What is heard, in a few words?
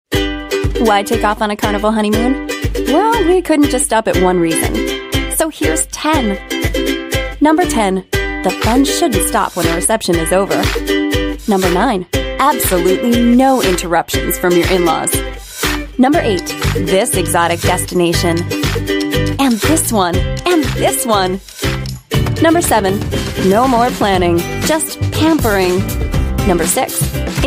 US English Friendly, Female